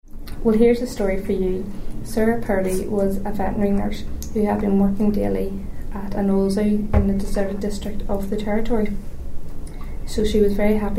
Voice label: Irish English, North of Ireland, reading passage, Banbridge, Co. Down